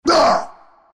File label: pain; dota; furion